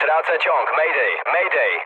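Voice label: damaged engine, mood low, Polish speech; War Thunder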